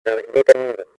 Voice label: spanish; XBOX; ambience

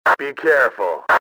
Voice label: careful, radio male; XBOX

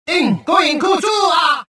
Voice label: cover, rodian

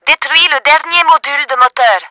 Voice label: engine down, french; Battle Engine Aquila

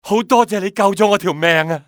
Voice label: thank, male; XBOX; civ

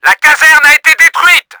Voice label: LOST BARRACKS, french; Battle Engine Aquila; XBOX

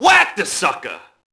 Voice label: mobster alert group; enemy; Max Payne

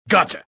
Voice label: anger; rebel

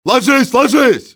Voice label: man down, russian soldier; enemy; XBOX

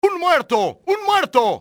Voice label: man down, spanish soldier; enemy; XBOX

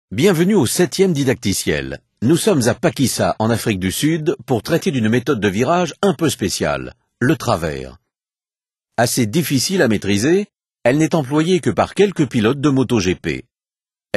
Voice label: powerslide french; MotoGP 3

